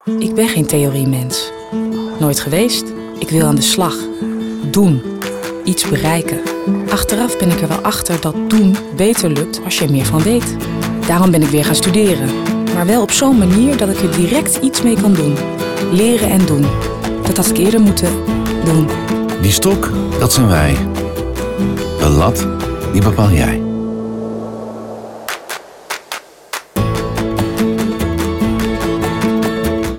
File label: TVC Testimonial Woman; NCOI